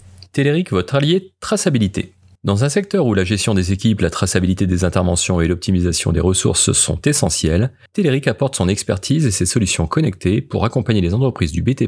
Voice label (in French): Voix off site internet page BTP; solutions pour le BTP